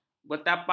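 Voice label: "bertapak", Malay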